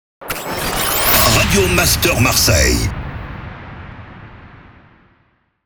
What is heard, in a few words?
Sweeper MEDIUM